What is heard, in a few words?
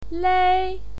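"lei", Mandarin Chinese, first tone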